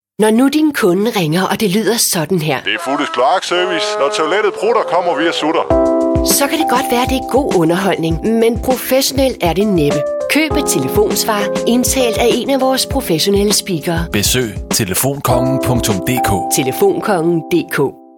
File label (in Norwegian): radio reklame; telefonkongen